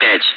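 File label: Speech, Russian, air traffic control; Digits; DCS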